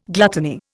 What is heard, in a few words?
"gluttony", Indian